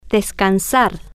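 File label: "ds-can-sar", Spanish, female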